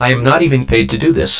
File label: robot vox; planet3c